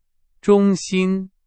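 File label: Chinese, vocabulary; hsk2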